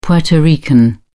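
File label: "puerto rican", British English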